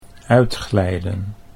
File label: "uitglijden", Dutch